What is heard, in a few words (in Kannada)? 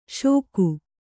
"ಷೋಕು", Kannada